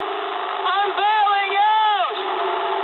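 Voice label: English bailout mood med; speech; War Thunder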